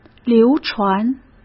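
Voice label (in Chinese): "流传", Mandarin Chinese; chinese-flashcards; hsk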